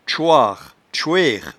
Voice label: "tchoire", patois jura